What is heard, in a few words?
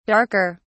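"darker", British English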